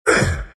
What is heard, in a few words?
pain; Visage